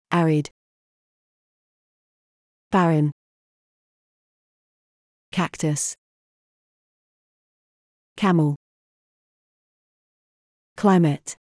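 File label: British; deserts; wordbank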